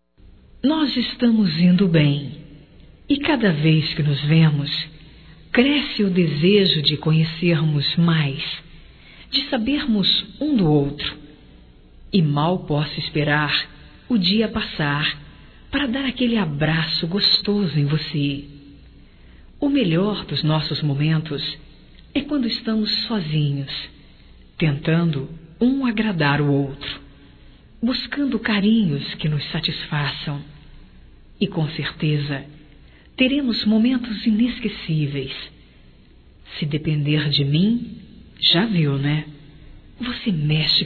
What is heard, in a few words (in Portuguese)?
ROMANTICA GERAL, Românticas fem